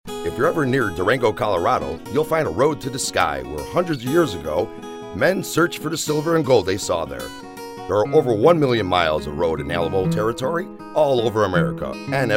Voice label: Commercial, Voice Overs; Alamo